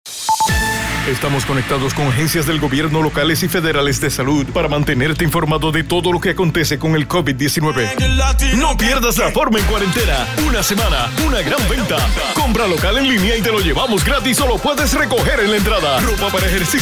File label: commercials; Spanish voice over